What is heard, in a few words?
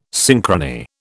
"synchrony", British